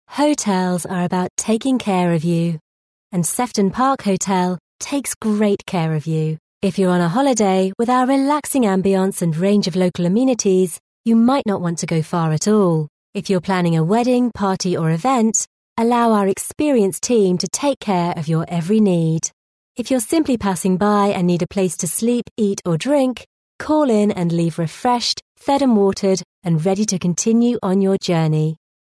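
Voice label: English, female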